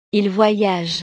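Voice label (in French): "il voyage", French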